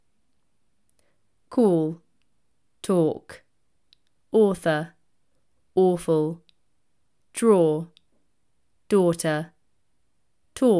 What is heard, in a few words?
Brit Call to Dog, British English